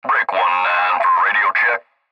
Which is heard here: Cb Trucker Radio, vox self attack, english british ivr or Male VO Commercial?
Cb Trucker Radio